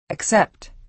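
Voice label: "accept" American English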